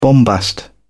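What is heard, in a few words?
"bombast", British English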